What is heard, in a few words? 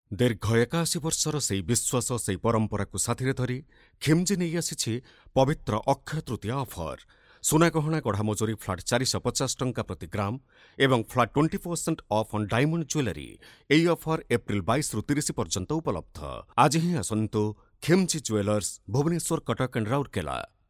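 promo oriya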